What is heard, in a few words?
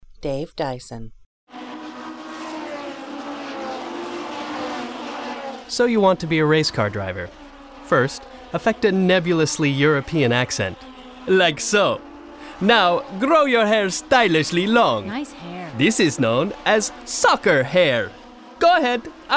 male voice